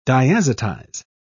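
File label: "diazotize", British English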